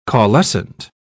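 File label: "caulescent", British English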